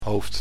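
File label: "hoofd", Dutch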